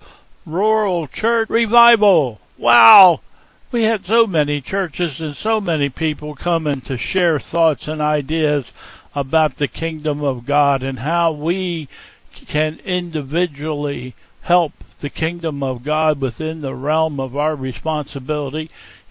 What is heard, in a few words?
Welcome and Announcements